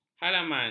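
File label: "halaman", Malay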